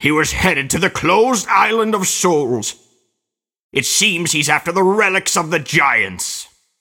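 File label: cinematic voice, Spanish; lineage2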